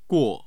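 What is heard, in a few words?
"guo", Mandarin Chinese, tone 4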